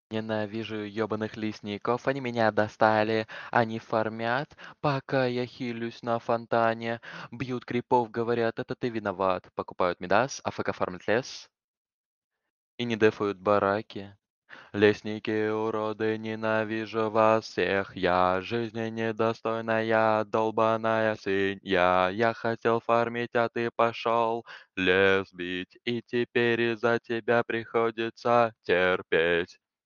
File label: voice message